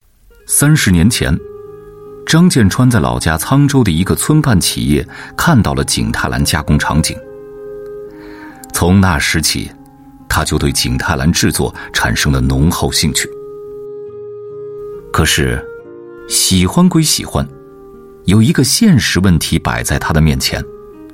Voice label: Mandarin China Narrator Documentary